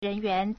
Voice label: "ren2 yuan2", Chinese; 人员 (人員) rényuán; staff; crew; personnel

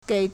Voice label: "gei3", Chinese

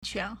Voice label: "quan2", Chinese

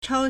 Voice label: "chao1", Chinese

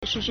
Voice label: "shíshì", Chinese; 时事; 時事; current events

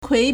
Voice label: "kui2", Chinese

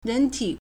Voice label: "réntǐ", Chinese; 人体; 人體; human body

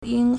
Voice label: "ying1", Chinese

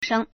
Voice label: "sheng1", Chinese

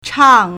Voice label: "chang4", Chinese

